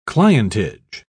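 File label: "clientage", British English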